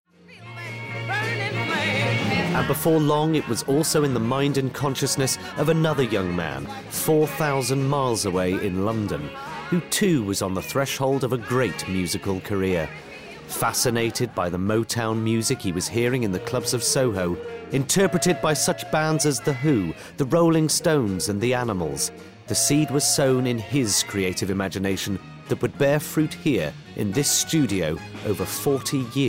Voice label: Documentary; Motown